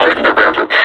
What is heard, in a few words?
announce attack, combine suppressor